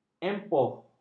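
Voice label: "empoh", Malay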